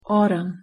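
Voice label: pronunciation "autumn", English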